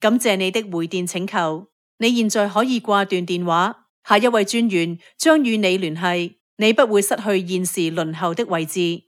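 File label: Chinese Cantonese, telephony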